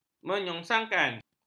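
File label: "menyongsangkan", Malay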